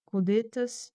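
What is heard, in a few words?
"Codetas", Occitan Gascon; Prêts pour l’Òc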